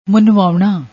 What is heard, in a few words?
"munvaouna", Punjabi